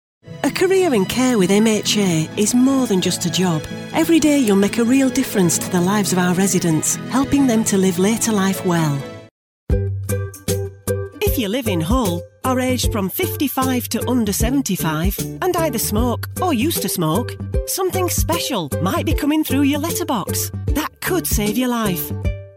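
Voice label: English, UK, female, commercials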